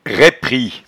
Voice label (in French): "raippris", patois jura